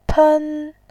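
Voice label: "pen", Mandarin, tone 1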